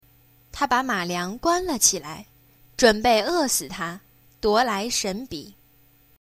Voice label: chinese reading; kids